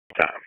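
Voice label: Wake Forest Police Dispatch, p25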